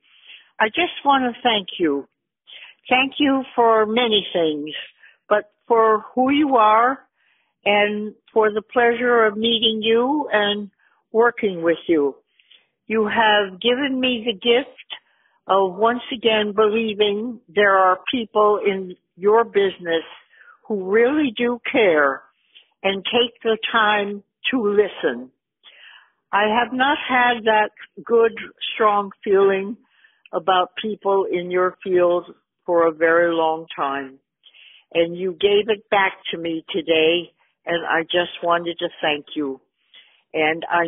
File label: Voicemail Testimonial